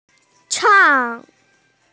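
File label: "chang4", Mandarin Chinese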